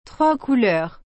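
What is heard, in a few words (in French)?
"trois couleurs", French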